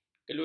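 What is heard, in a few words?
"keluih", Malay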